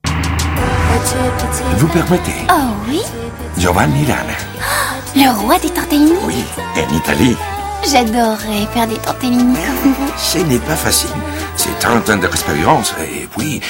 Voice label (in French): pub doublage tv; rana marylin monroe